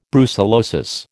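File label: "brucellosis", American English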